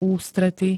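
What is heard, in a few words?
"ústrety", Slovak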